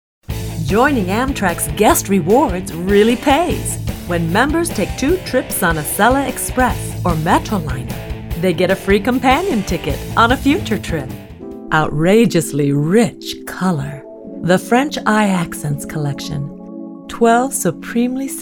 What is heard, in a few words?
Commercial